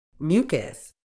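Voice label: "mucus", British English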